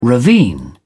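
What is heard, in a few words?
"ravine", British English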